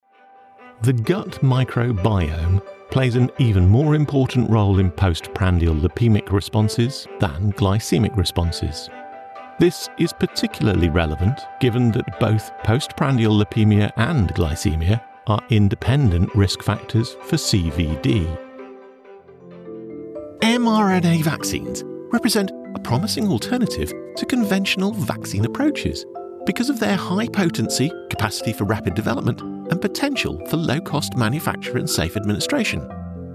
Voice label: Medical US